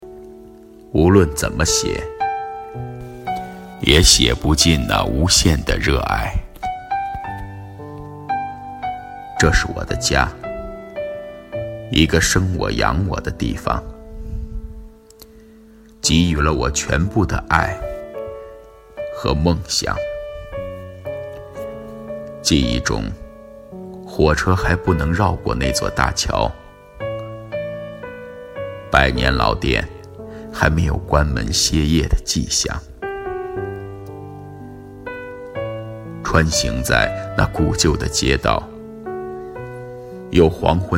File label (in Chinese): 城市 宣传片 配音; 百年道外